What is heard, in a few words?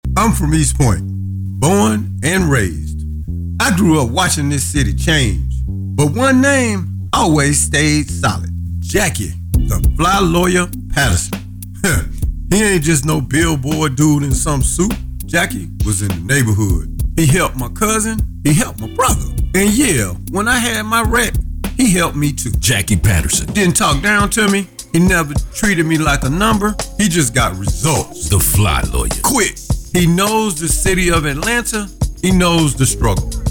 Voice Actor, Attorney Voiceovers